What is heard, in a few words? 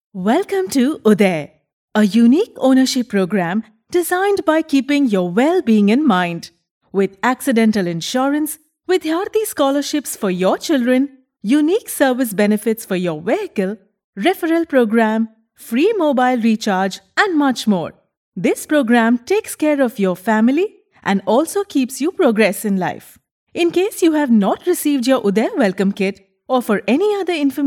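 IVR English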